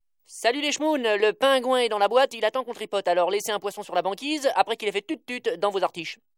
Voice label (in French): Repondeur Drole